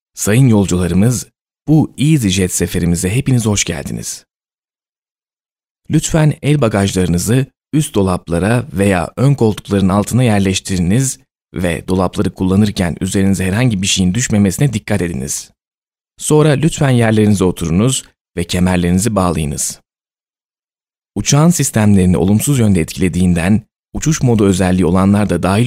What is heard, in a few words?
Boarding Turkish; Türkisch m.